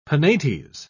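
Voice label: "penates", English, British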